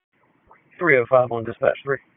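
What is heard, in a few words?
Sheriff's Office Dispatch; WSO DISP 1; wake; p25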